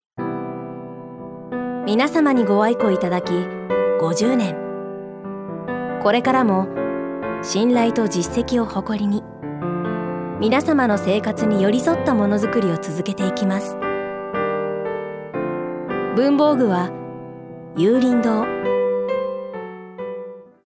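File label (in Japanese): ナレーション, 女性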